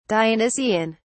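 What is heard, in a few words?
"dionysian", British English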